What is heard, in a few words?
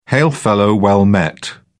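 "hail-fellow-well-met", British English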